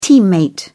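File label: "teammate", British English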